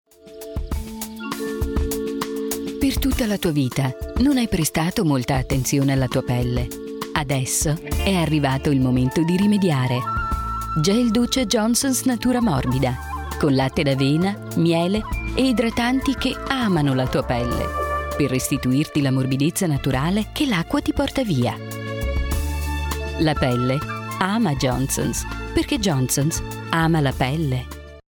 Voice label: Shower Gel Soft Sell warm smooth

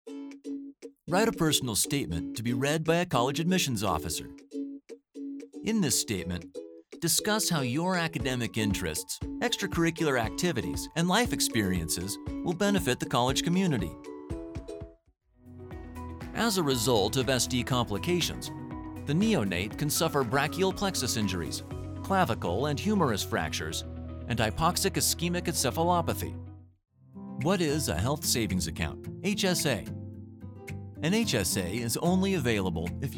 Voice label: e learning Compilation

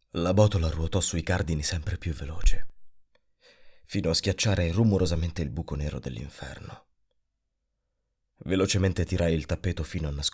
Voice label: Book Trailer; Il ragno e la mosca

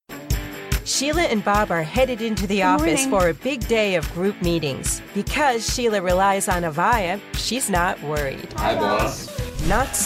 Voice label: Narration

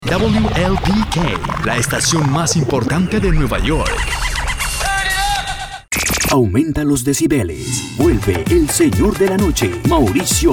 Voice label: Radio Image

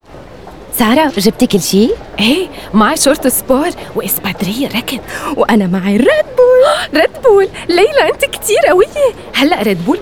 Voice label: Lebanese TVC Character Jingle Tag; Red Bull

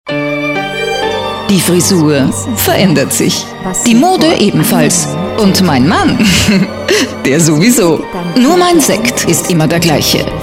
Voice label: commercial; Hochriegl

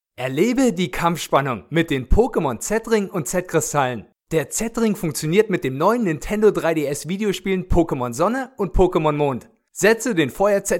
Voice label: Commercial; Pokemon

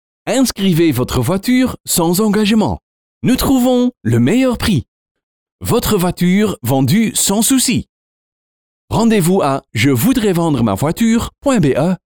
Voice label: French Commercial

